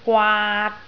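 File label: "gwaat3", Cantonese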